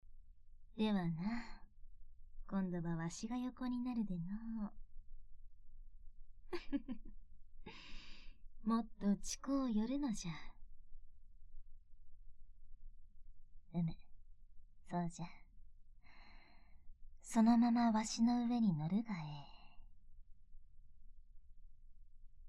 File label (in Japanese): BGM効果音なし